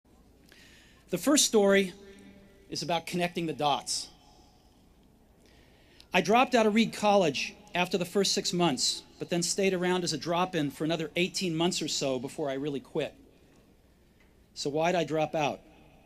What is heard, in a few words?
Commencement Address; Steve Jobs; 2005; Stanford